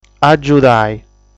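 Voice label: "Agiudai", Sardinian word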